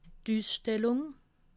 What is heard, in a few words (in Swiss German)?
"usstellung", alsace